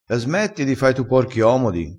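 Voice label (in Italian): "fa propi porchi omodi", vocabolario dialettale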